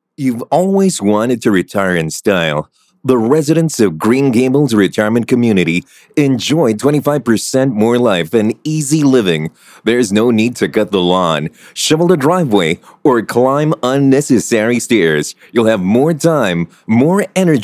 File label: English (Conversational)